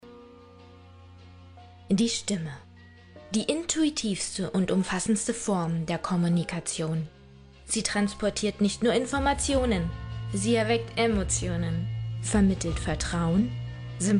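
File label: German, female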